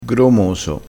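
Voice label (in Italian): "gromoso", dialetto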